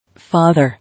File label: "Father", English